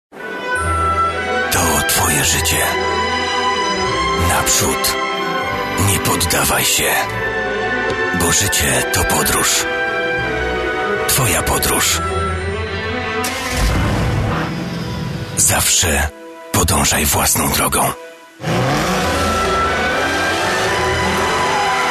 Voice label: commercial mercedes, radio; MERCEDES C COUPE; R E K L A M A